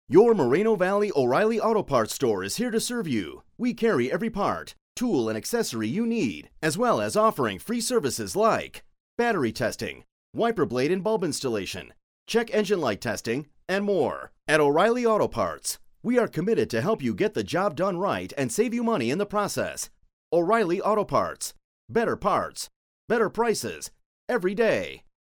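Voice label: English USA male, english american voice over talent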